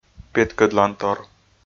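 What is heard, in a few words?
"петкӧдлантор", Komi, male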